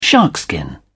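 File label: "sharkskin", British English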